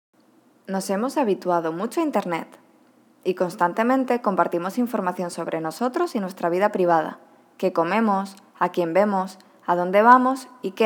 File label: Spanish; animation; voice overs